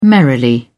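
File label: "merrily", British English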